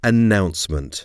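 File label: "announcement", English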